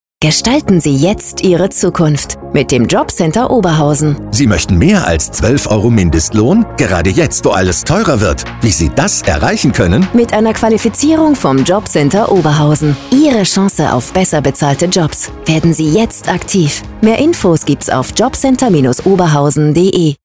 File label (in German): Radiospot; Qualifizierung jetzt; Radio Oberhausen